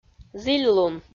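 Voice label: "зільлун", Komi-Zyrian, female; audio dict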